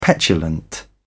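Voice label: "petulant", English, British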